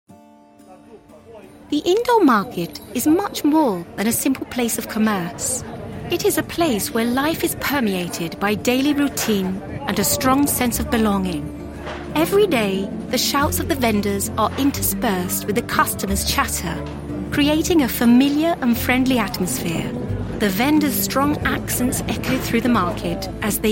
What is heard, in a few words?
eng audio mercato coperto